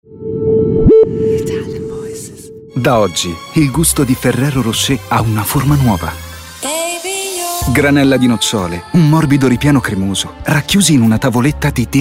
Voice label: ITALIAN VOICES Commercials Smooth, male voices; Recent Commercials

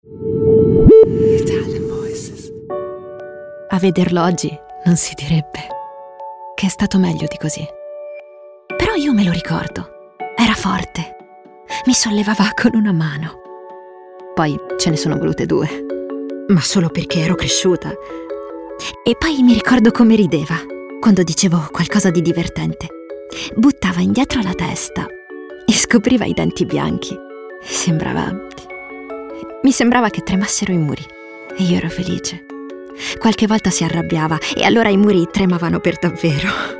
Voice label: ITALIAN VOICES, female voices; Naked Reel

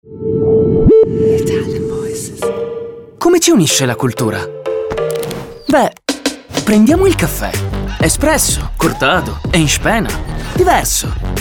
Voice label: Italian voices, Cool Streetwise, male voices

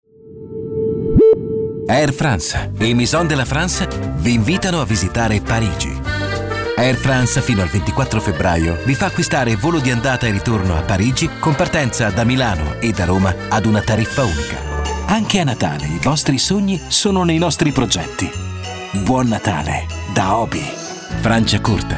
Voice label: ITALIAN VOICES Friendly Bright, male voices

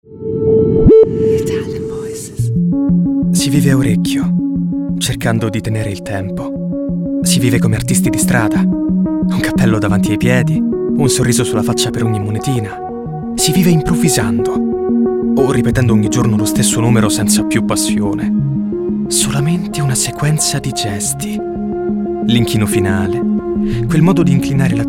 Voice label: ITALIAN VOICES Naked Reel, male voices

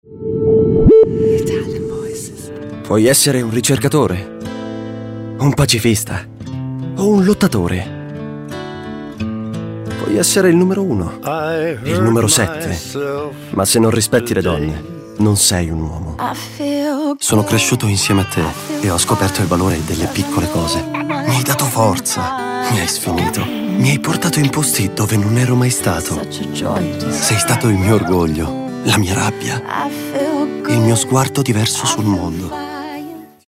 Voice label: ITALIAN VOICES Natural Intimate, male voices

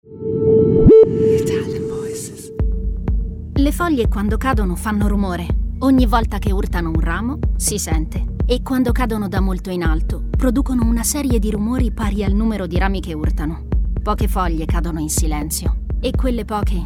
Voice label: ITALIAN VOICES Narration Natural, female voices

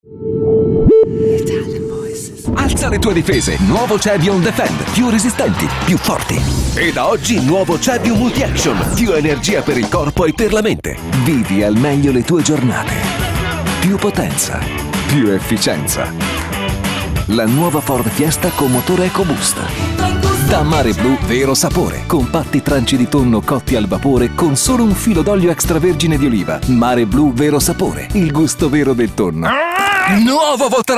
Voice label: ITALIAN VOICES Upbeat Friendly, male voices

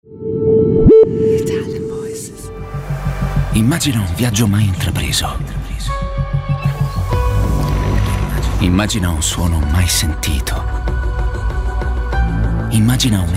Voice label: ITALIAN VOICES Reassuring Genuine, male voices

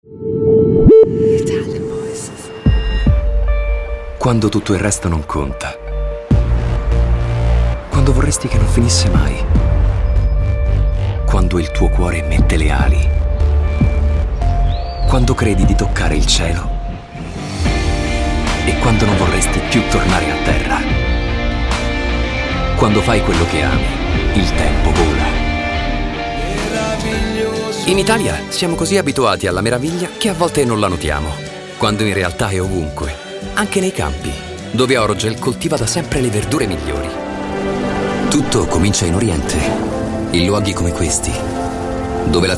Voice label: Emotional Intense, Male Voices, ITALIAN VOICES

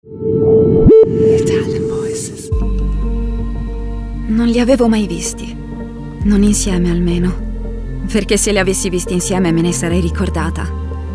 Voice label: ITALIAN VOICES, female voices; Naked Reel

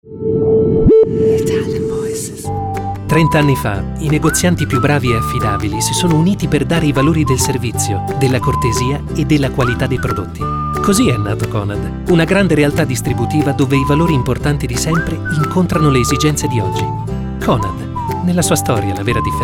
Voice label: Intense Reassuring, Male Voices, ITALIAN VOICES

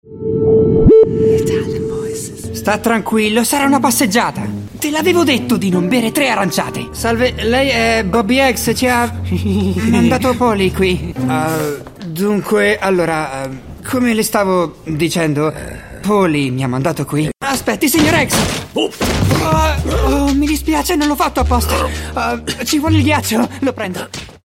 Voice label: ITALIAN VOICES Acting, male voices

